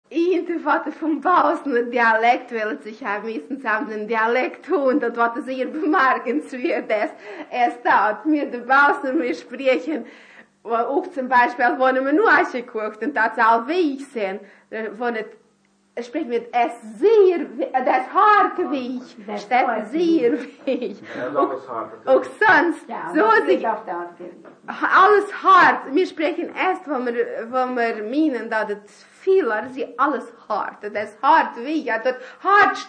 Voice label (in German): eigentuemlichkeiten des baassener, sprachaufnahmen